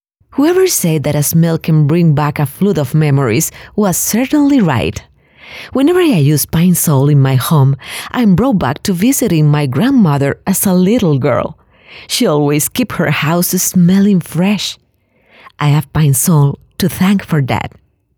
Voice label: English